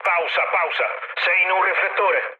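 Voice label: Italian searchlite mood med, speech; War Thunder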